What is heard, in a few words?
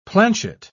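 "planchet", British English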